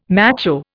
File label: "macho", Indian